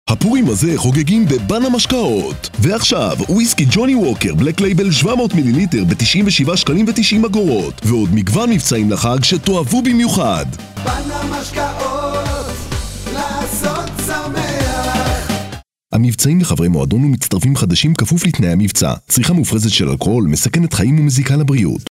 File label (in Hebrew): תשדיר; בנא משקאות; גוני ווקר